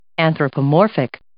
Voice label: "anthropomorphic", English